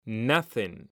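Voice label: "nacen", Spanish, male; mekanta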